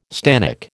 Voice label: "stannic", American